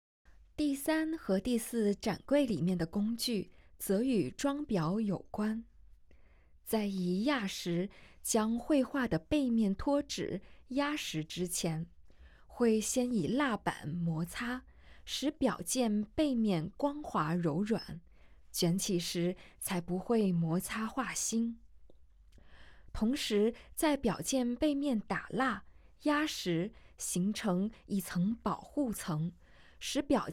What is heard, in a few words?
Putonghua; audio guide